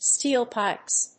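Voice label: "Steel Pipes", English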